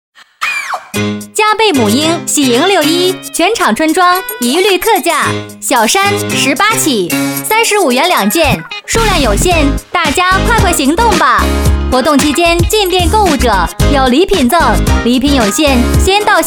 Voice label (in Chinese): 女 促销 母婴喜迎六一; 佳贝